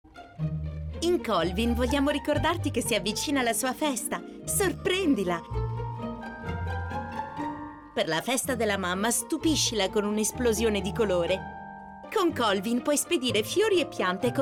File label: Italian, F, TV ad, voice-over